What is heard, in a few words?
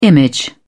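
"image", British English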